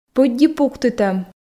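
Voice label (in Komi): "пыдди пуктытӧм", Komi, female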